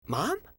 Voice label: "mom", voices